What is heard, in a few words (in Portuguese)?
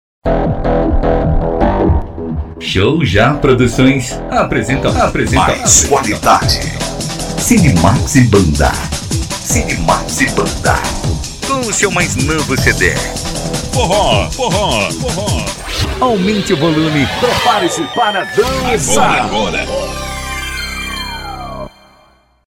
cantor spot abertura; cd sidmax arrastape